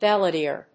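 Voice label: "Balladeer", English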